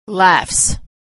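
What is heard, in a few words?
"laughs", American English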